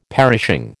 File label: "perishing", American